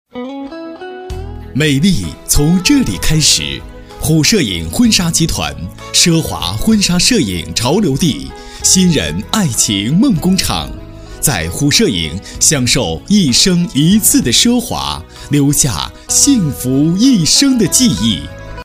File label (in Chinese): 男35 摄影公司（年轻）